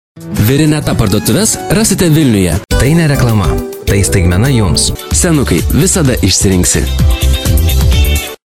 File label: lithuanian commercial